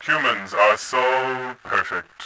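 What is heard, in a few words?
global sarcasm, voice, Bot; Tribes2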